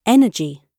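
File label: "energy", British English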